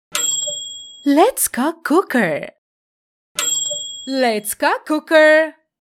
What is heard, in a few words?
Voice assistant for Cook App Motivate life Interactive; IVR